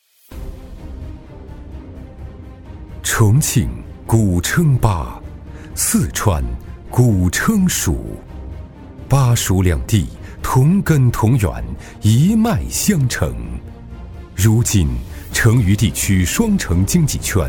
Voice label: 男 城市宣传片 高端大气; 成渝双城

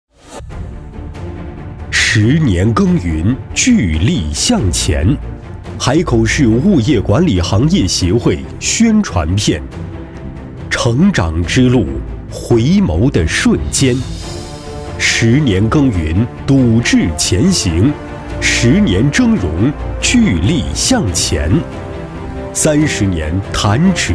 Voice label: 男 企业专题 沉稳厚重; 高端大气; 大气浑厚; 十年耕耘

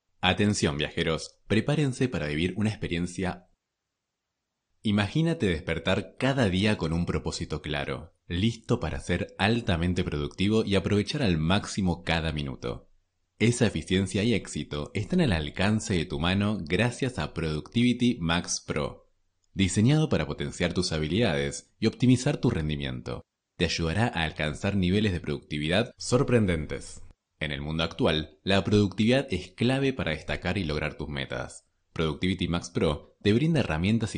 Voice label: 西班牙男声

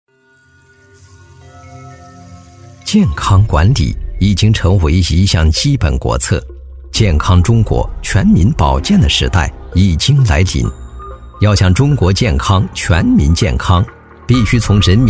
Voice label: 男 宣传片 大气国风; 男35-历史感强 质感磁性; 玄琦凤养生会所

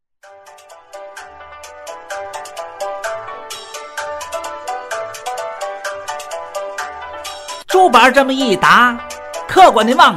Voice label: 男 天津快板 宣传广告; 男60-角色方言 轻松活泼; 重庆小面

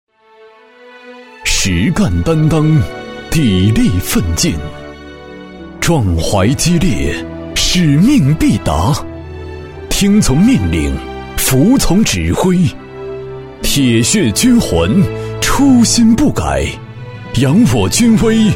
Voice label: 男 大气浑厚党政宣传片; 什么是军人; 男212

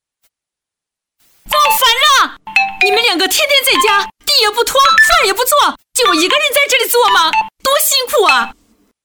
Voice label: 女, 角色, 蛮横暴躁的黄脸婆 妈妈

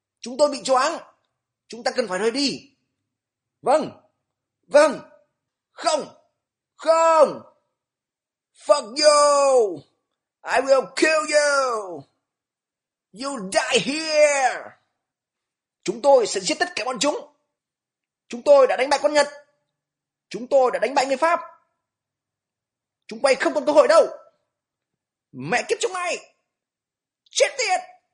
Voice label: 越南语男声, 角色

